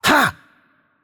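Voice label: Hungarian attack heavy voice; bloom minigame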